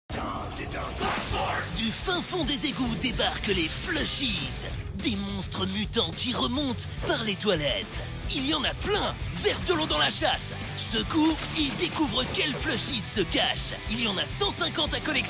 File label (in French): spot tv jeu enfants; flushforce